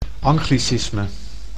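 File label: "anglicisme", Dutch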